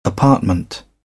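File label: "apartment", British English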